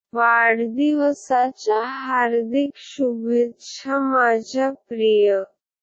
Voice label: happy birthday my dear marathi